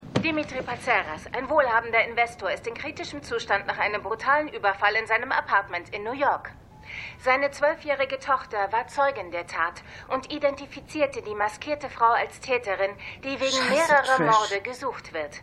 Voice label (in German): Nachrichtensprecherin; Jessica Jones